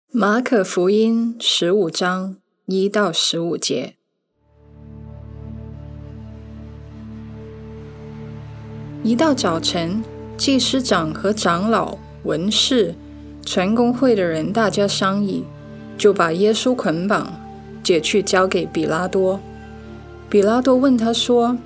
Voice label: Voice Over Chinese; Journey to the Cross